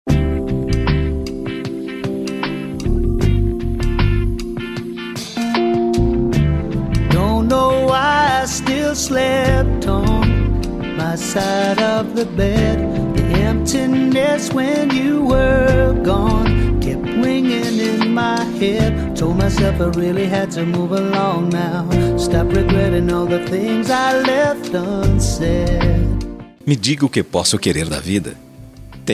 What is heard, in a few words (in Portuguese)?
ANIV PARA ESPOSA, telemensagem